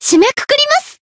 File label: Voice finish jp